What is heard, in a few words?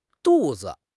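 "du4 zi5", Mandarin Chinese; HSK4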